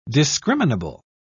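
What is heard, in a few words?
"discriminable", British English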